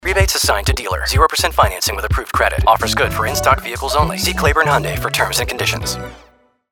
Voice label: Generic Lease Disclaimer